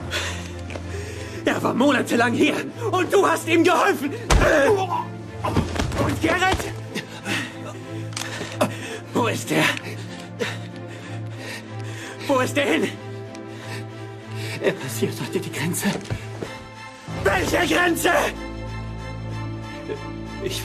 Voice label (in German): synchron; riphagen; LOFT VOICES